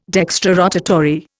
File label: "dextrorotatory", Indian